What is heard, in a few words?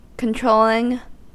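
"controlling", US English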